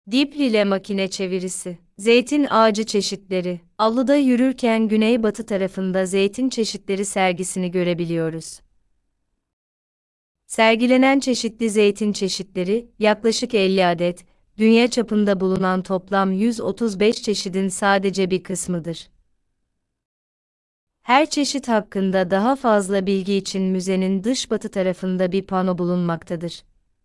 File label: turkish; Olive tree varieties